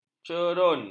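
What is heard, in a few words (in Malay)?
"cerun", Malay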